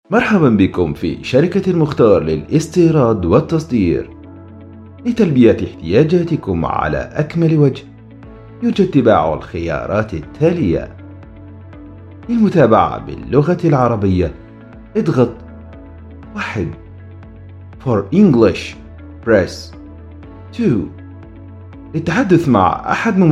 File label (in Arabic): الرد الآلي